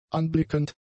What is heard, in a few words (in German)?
"anblickend", German; adjectives